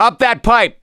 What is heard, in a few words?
misc directional, voice; gambler